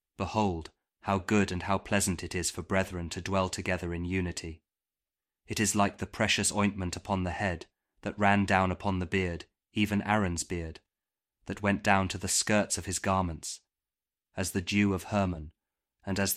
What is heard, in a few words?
psalm kjv king james audio bible